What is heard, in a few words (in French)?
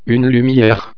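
"lumiere", French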